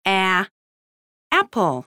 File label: babys sound audio APPLE